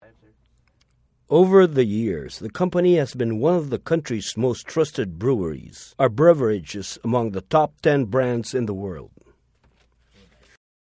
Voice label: English (Corporate)